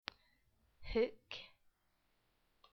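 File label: "hook", English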